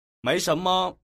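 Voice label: mei shen me, Chinese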